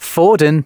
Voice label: announcements male